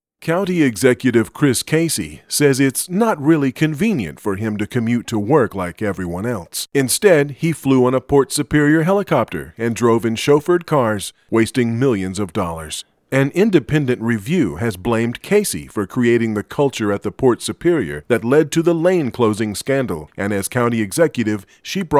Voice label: Ad

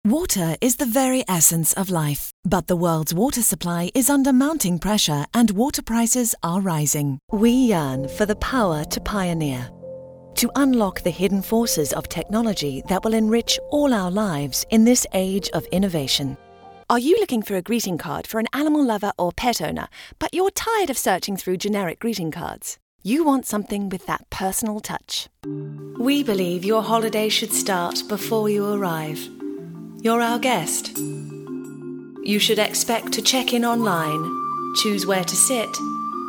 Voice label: British, UK

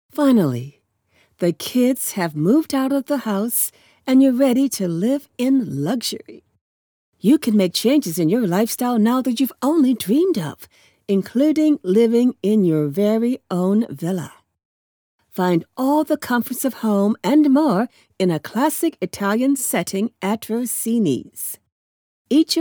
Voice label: Real Estate Video VO

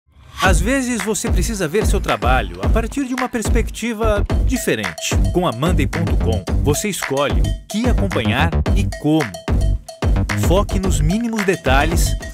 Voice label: Commercials

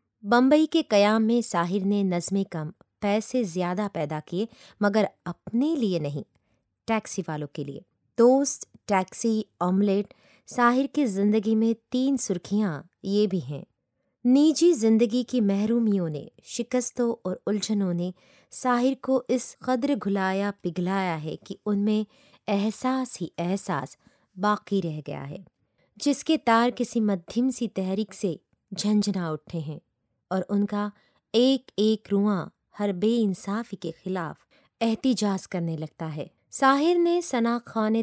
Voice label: Urdu Hindi Narration

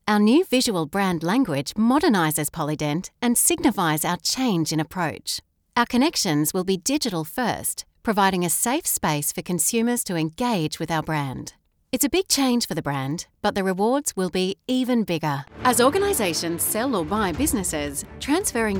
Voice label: Corporate

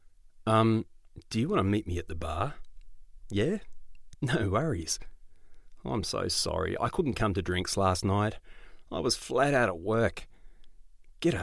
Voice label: Aussie

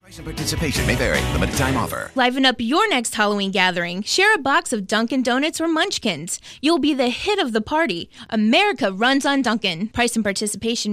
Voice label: voice over

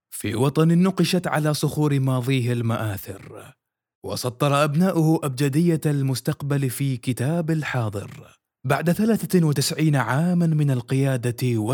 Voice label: Corporate Saudi Energetic

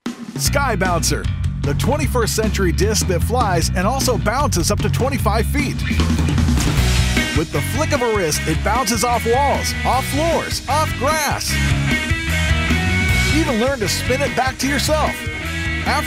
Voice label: Toys High Energy

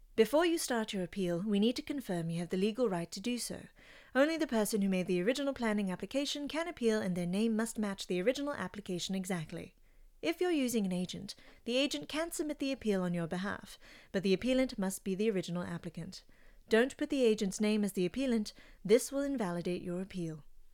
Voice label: Narrator

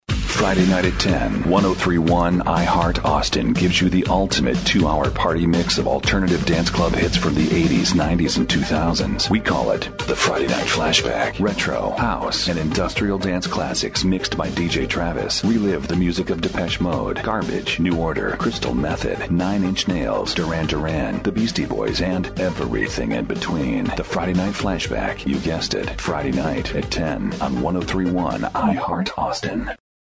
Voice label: radio imaging

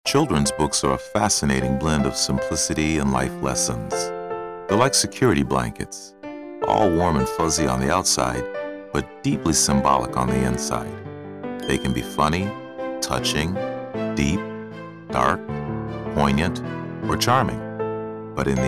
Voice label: Childrens Books Commercial

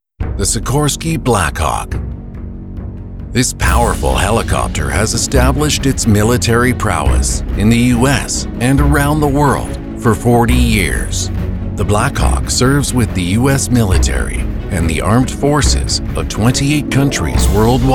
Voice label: Documentary Narration

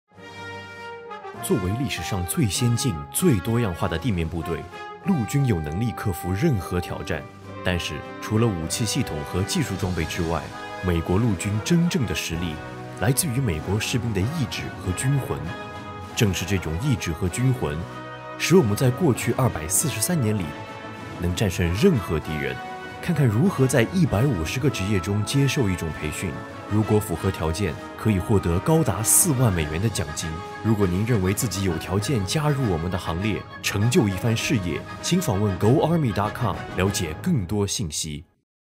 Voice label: Mandarin; Heart of a Soldier

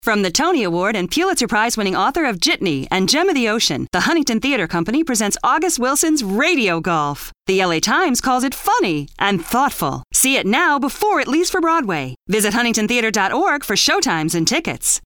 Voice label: ADV Spot

